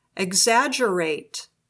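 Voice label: "exaggerate", English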